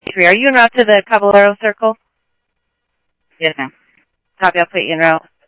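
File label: Simulcast police department, scanner audio trunk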